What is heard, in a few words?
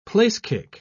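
"place-kick", British English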